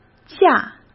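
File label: "驾", Mandarin Chinese; hsk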